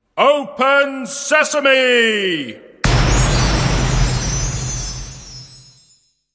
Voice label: Open Sesame Spoken, Dramatic voice saying ‘Open Sesame’ with magical door opening effe; Recorded Voice